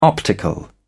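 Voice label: "optical", British English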